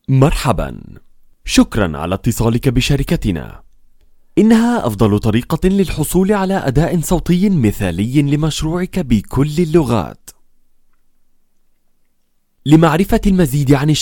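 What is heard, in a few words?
Arabic, IVR; GoLocalise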